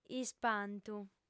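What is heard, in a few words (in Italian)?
"ispantu", lingua sarda, fem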